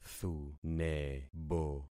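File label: "thunebo", concatenated syllables; Affixing experiment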